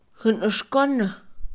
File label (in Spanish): Mixe, Mujer; incendio premeditado; INALI